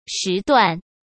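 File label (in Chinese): "时段" Mandarin Chinese; hsk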